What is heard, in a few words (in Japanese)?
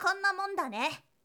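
"konnamondane", Japanese boy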